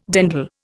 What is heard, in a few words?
"dandle", Indian